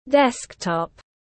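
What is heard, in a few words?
"Desktop", English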